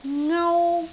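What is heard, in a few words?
"ngau1", Cantonese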